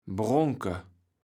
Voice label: "bronke", kaevels platt

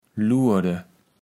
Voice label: "lurde", kaevels platt

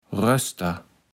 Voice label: "Röster", Platt

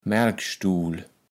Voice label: "Mälkstuul", kaevels platt

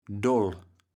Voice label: "Doll", kaevels platt

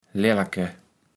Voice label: "lällke", kaevels platt

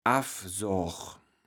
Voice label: "affsoag", kaevels platt

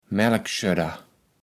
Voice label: "Mälkschödder", kaevels platt

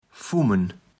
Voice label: "Fummen", Platt

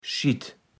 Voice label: "Schitt", platt

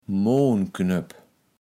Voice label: "Moonknöpp", Platt

